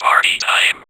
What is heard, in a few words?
Vocoder Words; Vengeance Samples